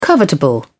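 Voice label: "covetable", British English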